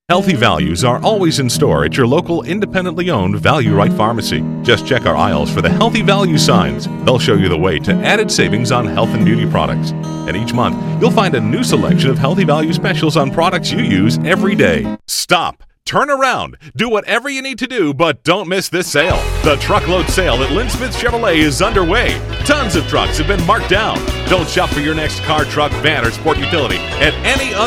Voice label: commercial aircheck